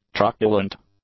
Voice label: "truculent", English; adjectives

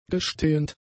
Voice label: "gestehend", German; adjectives

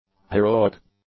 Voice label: "heroic", English; adjectives

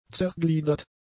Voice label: "zergliedert", German; adjectives

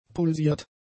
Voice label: "pulsiert", German; adjectives

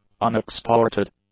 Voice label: "unexported", english; adjectives

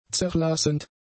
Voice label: "zerlassend", German; adjectives

